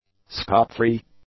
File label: "scot-free", English; adjectives